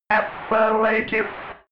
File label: robot voice affirmative fx